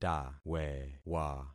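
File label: "tawewa", concatenated syllables; Affixing experiment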